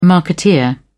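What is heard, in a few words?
"marketeer", English, British English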